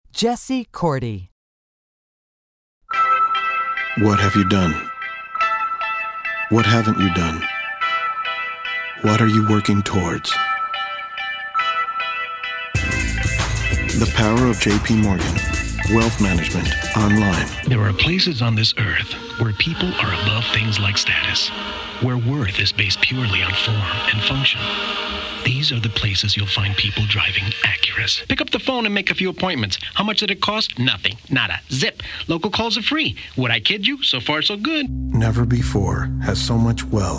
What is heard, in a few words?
commercial; Innovative LA